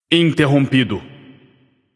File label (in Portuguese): alert notify kill streak breaker, vox caster portuguese